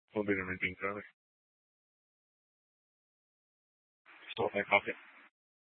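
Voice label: police dispatch radio, scanner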